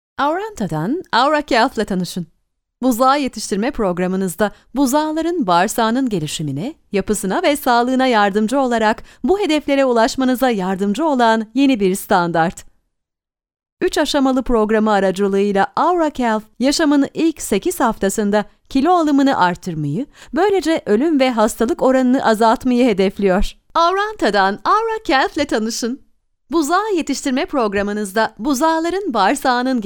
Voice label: turkish female commercial